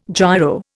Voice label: "giro", Indian